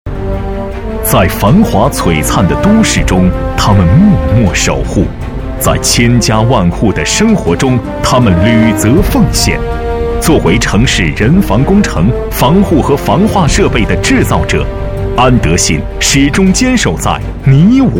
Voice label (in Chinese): 专题 企业 大气, 男国; 安德信